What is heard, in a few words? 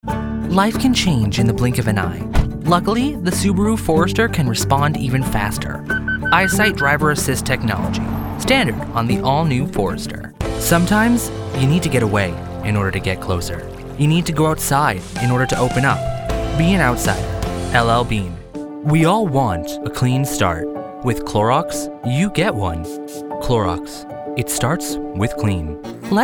Commercial, voice